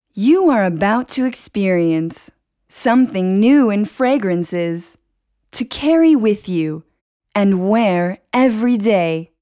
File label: Places, Ingles (USA)